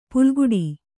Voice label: "ಪುಲ್ಗುಡಿ", Kannada; pulguḍi; (Noun); = ಪುಲುಮನೆ.

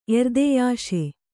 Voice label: "ಎರ್ದೆಯಾಶೆ", Kannada

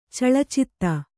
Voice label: "ಚಲಚಿತ್ತೆ", Kannada

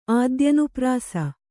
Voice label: "ಆದ್ಯನುಪ್ರಾಸ", Kannada

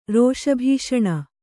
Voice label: "ರೋಷಭೀಷಣ", Kannada

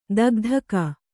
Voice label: "ಥಕಥಾಕು", Kannada

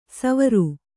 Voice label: "ಸೌವೀರ", Kannada

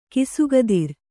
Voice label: "ಖಾಸಕುದುರೆ", kannada

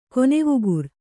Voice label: "ಕೊನೆವುಗುರ್", kannada

